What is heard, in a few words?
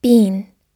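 "bean" US English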